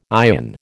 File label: "ion", American